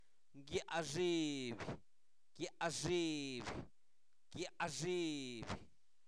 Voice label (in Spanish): Zapoteco Albarradas, santo domingo albarradas; INALI; cuerpo; rodilla